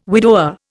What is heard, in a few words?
"widower", Indian